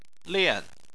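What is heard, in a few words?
"lian", Chinese, fourth tone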